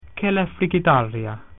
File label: "refrigerator", Latin